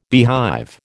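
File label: "beehive", American English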